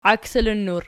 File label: "iaksilinnur", Archi